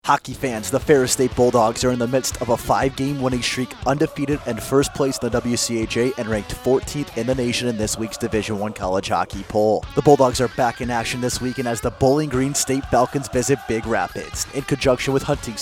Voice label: Commercial; BGSU